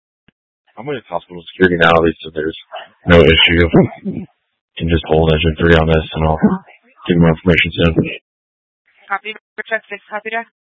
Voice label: Fire Dispatch